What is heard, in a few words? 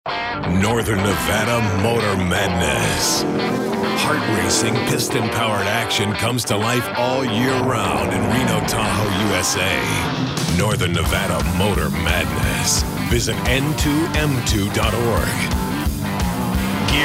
Raspy